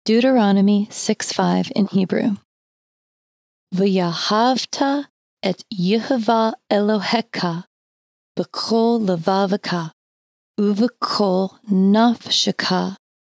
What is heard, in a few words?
Deuteronomy 6 5 In Hebrew Slowly